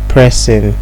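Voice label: "pressing", Nigerian Pidgin, male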